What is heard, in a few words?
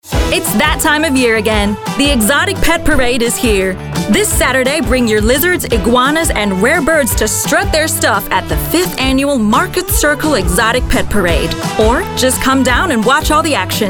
USA; VO REEL 2023